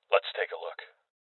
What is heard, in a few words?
Voices OpenSafe Male; rebel cops 2019